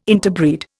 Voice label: "interbreed", Indian